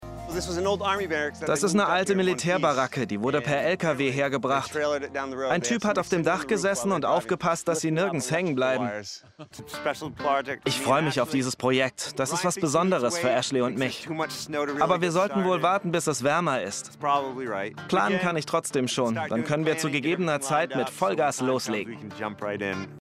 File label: Voiceover; Blockhausprofis